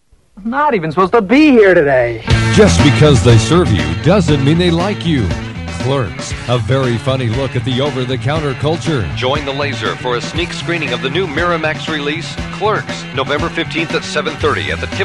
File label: radio spot; clerks